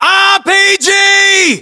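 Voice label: threat rpg, British; localized english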